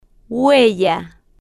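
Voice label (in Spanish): "huella", spanish, female, slow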